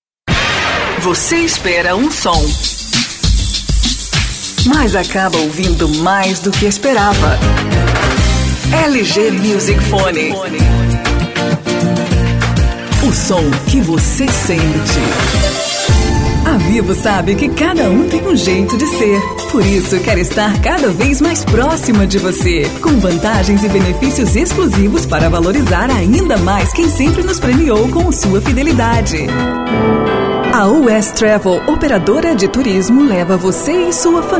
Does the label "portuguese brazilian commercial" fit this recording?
Yes